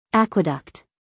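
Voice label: "aqueduct", American English, female; everyone-can-use-english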